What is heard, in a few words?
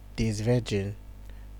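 "disvirgin", Nigerian Pidgin, male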